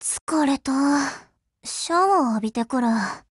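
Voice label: OPERATION OVER, Japanese; GF Alarm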